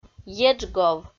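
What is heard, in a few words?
"еджгов", Komi, female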